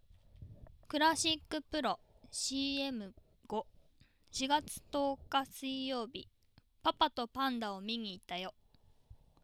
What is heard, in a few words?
ClassicPro CM5